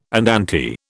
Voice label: "andante", British